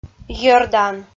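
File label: "йӧрдан" Komi, female; audio dict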